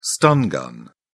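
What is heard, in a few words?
"stun gun", English, uk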